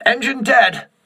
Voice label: voice message engine destroyed, crew ships english